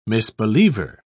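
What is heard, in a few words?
"misbeliever", British English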